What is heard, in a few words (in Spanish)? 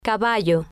"caballo", Spanish